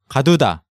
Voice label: "Gaduda", Korean